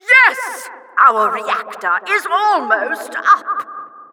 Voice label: announcer team close to winning

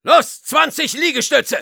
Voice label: jeers, de; Soldier; "Los, zwanzig Liegestütze!"

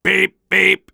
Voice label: Soldier robot

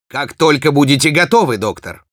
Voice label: spy activate charge, ru

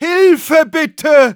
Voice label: medic help me, de

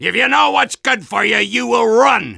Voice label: Soldier taunts

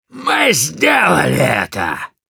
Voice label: Demoman cheers, ru

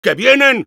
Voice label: incoming es; Engineer

